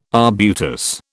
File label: "arbutus", British